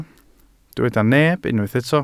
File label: "neb", Welsh